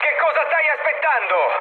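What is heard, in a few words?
Italian attack position mood med, speech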